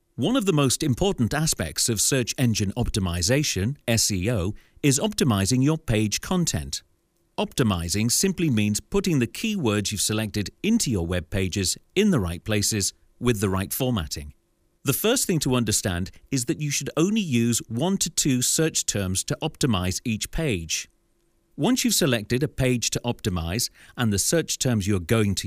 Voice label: e Learning